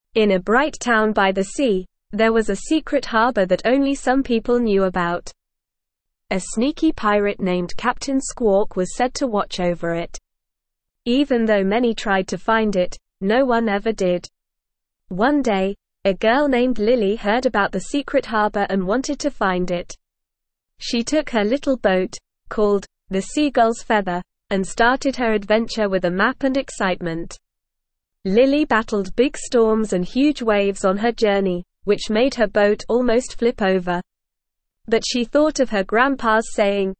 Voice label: ESL Short Stories for Kids Lower Intermediate NORMAL Reading; The Hidden Harbor